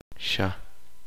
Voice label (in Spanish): delgado objeto delgado cilindrico, uspanteko